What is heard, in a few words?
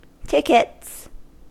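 "tickets", US English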